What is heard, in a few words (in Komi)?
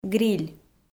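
"гриль", Komi, female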